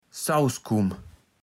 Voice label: "Ssaußkumm", platt

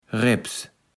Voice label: "reps", Platt

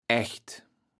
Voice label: "aecht", kaevels platt

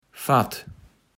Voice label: "Fatt", Platt

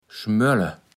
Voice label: "schmölle", Platt

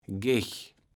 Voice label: "Gech", Platt

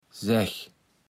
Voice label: "sägg", Platt

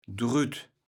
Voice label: "drütt", kaevels platt

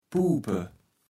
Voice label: "puupe", Platt

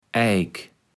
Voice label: "Eik", kaevels platt

